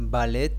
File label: "Ballet" spanish